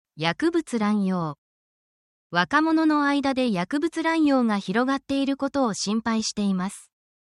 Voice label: essential japanese phrases for crime incidents